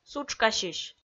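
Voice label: "сутшкасьысь", Komi-Zyrian, female; audio dict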